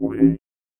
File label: VOICEFX ELCTR 140; Techno2